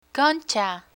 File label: "concha", Spanish; spanish verbs; learn spanish